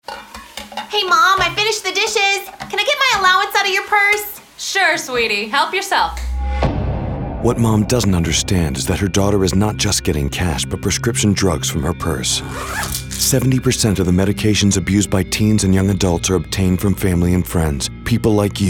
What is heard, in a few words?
Radio Spot; Lock Your Meds